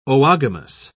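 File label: "oogamous", British English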